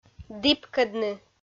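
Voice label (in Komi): "діпкӧдны", Komi, female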